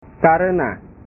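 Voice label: "karena", Indonesian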